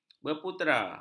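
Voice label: "berputera", Malay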